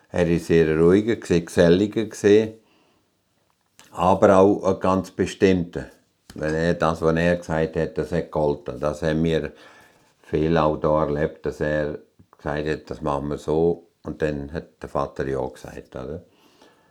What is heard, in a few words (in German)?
ruhig gesellig bestimmt